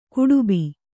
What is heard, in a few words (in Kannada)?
"ಗಡುಬ", Kannada